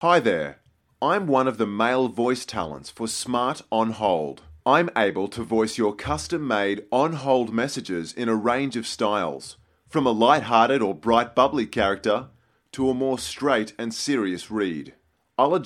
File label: MALE